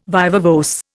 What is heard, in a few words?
"viva voce", Indian